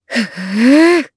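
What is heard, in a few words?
Casting, Japanese; heroes; Shamilla; kingsraid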